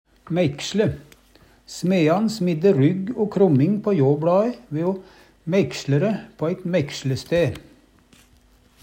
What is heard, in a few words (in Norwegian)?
meiksle, Numedalsmål